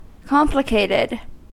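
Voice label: "complicated", US English; pronunciations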